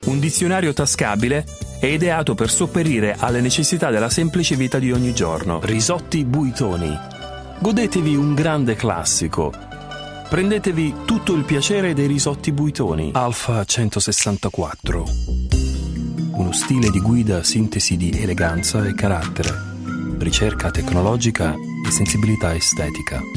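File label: Italian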